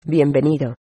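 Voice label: welcome, Spanish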